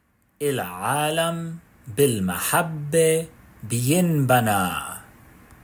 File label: Olam Chesed Arabic pronunciation